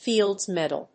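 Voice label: "Fields medal", English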